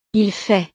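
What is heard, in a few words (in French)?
"il fait", French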